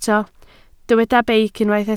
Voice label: "beic", Welsh